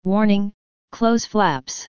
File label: Warning close flaps, texttospeech; edgetx